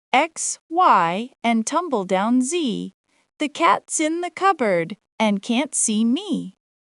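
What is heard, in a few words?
X Y and Tumbledown Z female us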